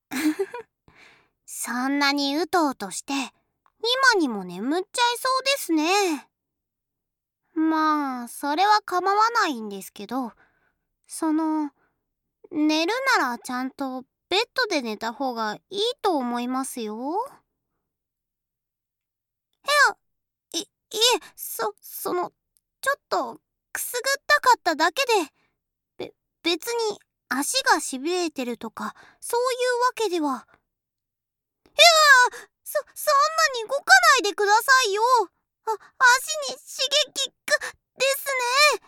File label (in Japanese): 添い寝, ASMR